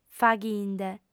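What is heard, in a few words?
"faghinde", Sardinian, female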